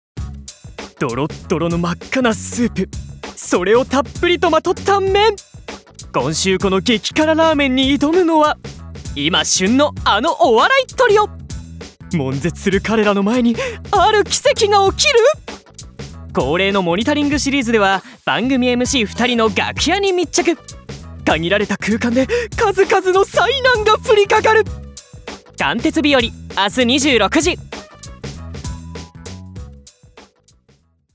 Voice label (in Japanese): ナレーション